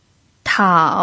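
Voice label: "tao", Mandarin Chinese pinyin, third tone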